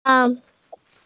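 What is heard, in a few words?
"xue2xiao4" Mandarin Chinese, female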